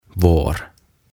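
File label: "Vår", Swedish; OTAVA KONSERNI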